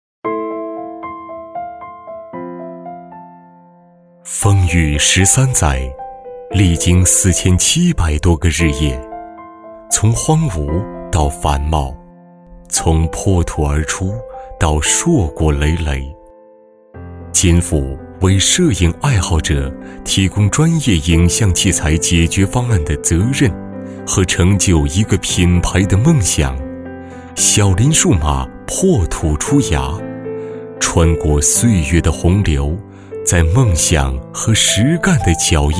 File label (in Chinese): 大气深情